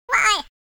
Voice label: crazy; wormux